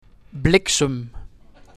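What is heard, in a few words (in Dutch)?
"Bliksem", Dutch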